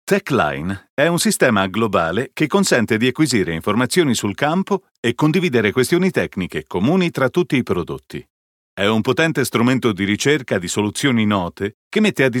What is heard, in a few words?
it corporate